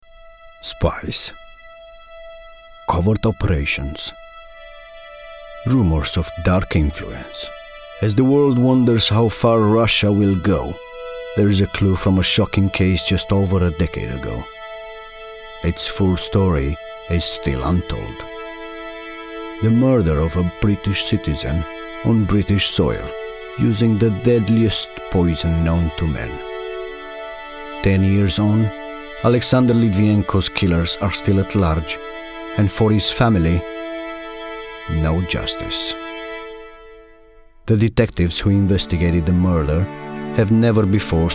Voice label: male romanian english with romanian accent documentary